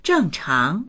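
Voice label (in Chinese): "zheng4chang2", Mandarin Chinese; zhèngcháng; 正常; 正常な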